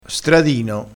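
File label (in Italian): "stradino", vocabolario dialettale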